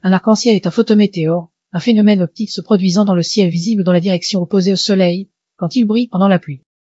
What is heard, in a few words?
speaker, fr FR; piper voices